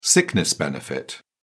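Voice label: "sickness benefit", British English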